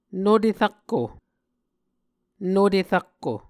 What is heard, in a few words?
"nute rakko", Muscogee; Mvskoke Words with Repeated Consonants; A Dictionary of Creek/Muskogee; SIL International